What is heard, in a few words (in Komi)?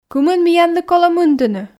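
кымын миянлы колӧ мынтыны, Komi, female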